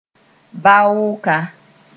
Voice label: "vaooka", moore

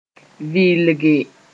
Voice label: "vɩɩlge", moore

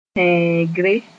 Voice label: "tẽegre", Moore

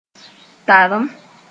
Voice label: "tarem", moore

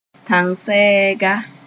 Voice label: "tãng sɛɛga", moore

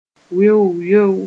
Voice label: "weo-weo", moore

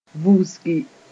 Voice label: "vusgi", moore; to uproot sth.

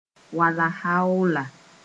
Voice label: "wallaahaoola", Mooré

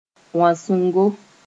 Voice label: "wãsengo", moore

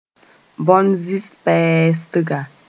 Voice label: "bõn-zɩsd pɛɛsdga", moore

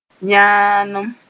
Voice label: "yãanem", moore; oxidation

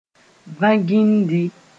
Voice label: "vã-gĩindi", moore

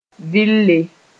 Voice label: "vɩlle", moore

